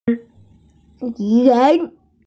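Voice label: "ran2", Mandarin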